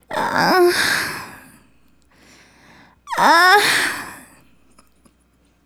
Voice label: Excited, Hip-Hop and RnB Vocals

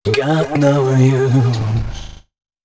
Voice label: male d# 130, short hooks, Dance Voice; Mutekki Media; Dance Ultra Voice VOL-1

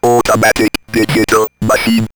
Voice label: Robot Digital Machine, robot vox; X-Static Goldmine

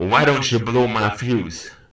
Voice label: Male FX Vocals; Houseworx

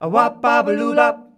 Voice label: WABABALUBA, MALES; Best Service; Hyper Dance

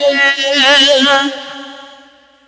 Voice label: VOICE, VOICE-FX

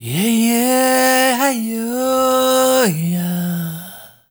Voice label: ZULU MALE; Spectrasonics; Heart of Africa 2; S.MALEDRY

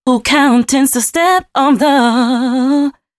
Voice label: female, C# 140bpm, Dance Ultra Voice, short hooks; Mutekki Media; Dance Ultra Voice VOL-1

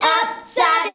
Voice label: "upside", shouts; Best Service; Hyper Dance

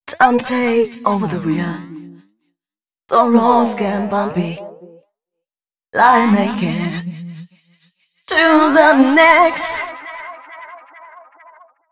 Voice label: female, Dance Ultra Voice hooklines

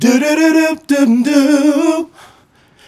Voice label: Male Vocal; Mac Money

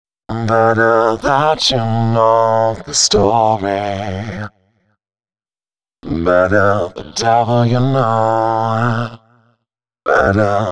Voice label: male g#125, Dance Ultra Voice hooklines; Mutekki Media; Dance Ultra Voice VOL-1

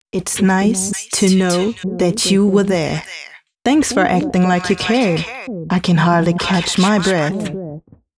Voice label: female c#132bpm, spoken hooks; Mutekki Media; Dance Ultra Voice VOL-1

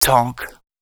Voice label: male, Dance spoken hooks; Mutekki Media; Dance Ultra Voice VOL-1

